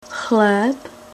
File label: "chleb", Czech